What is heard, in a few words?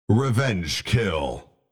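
revenge kill, Video Game Announcer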